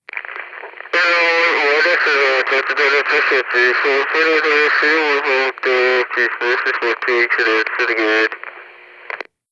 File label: Walkie Talkie; soundfx; People